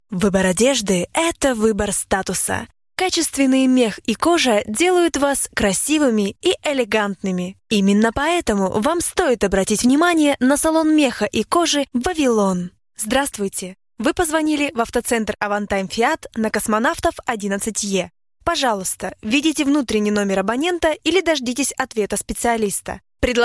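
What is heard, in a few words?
female, Russian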